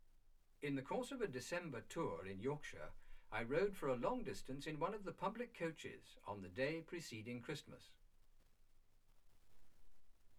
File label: male speech english